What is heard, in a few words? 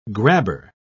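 "grabber", English, British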